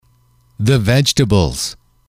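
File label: "the vegetables", English, male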